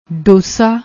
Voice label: "ਡੋਸਾ", Punjabi

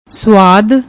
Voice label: "suad", Punjabi

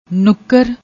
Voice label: "nukkar", Punjabi

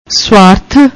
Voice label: "svaarath", Punjabi; ਸ੍ਵਾਰਥ

